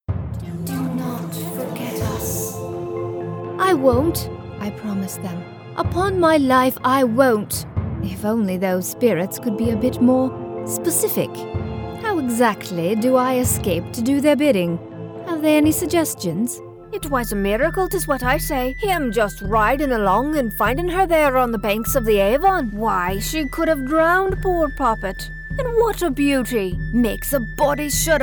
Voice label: Accent

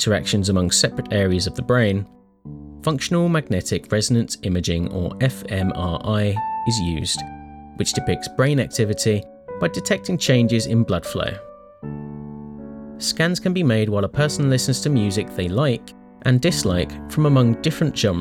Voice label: Narrative

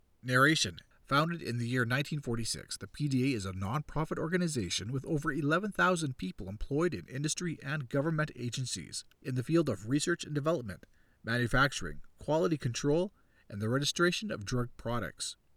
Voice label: Narration

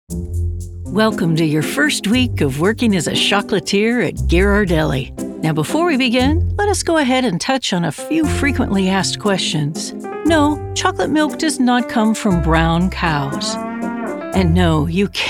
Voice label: eLearning

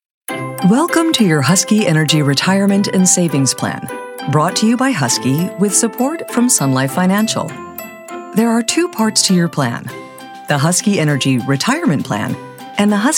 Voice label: Non Broadcast Narration

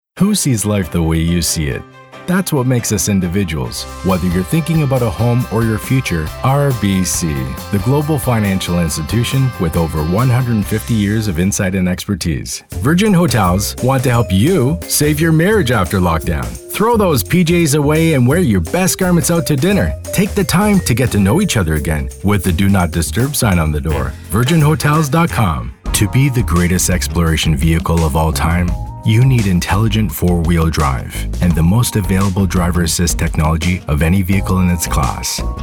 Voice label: Commercial Showreel

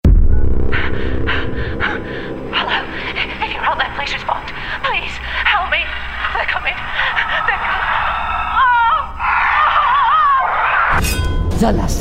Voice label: Gaming Character

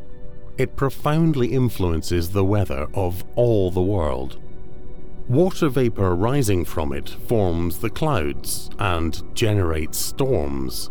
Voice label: Narration Documentary

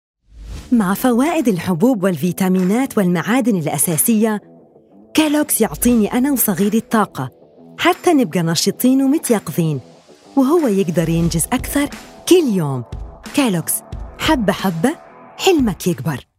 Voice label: KHALEEJI commercial; Kelloggs

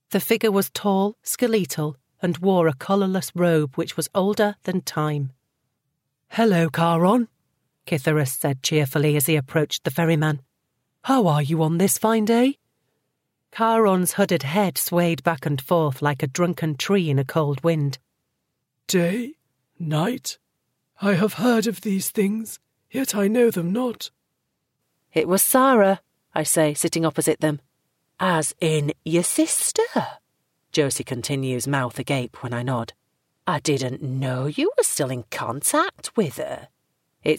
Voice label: Drama

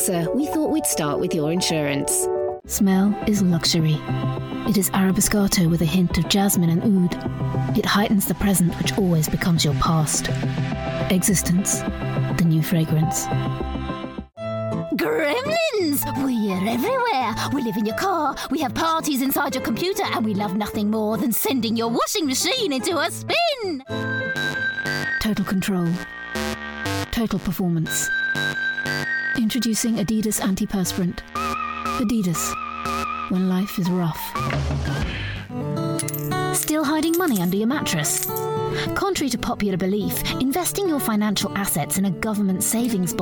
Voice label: commercial

